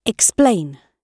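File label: "explain", British English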